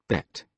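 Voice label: "bet", British English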